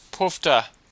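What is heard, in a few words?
"poofter", Australian English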